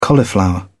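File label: "cauliflower", British English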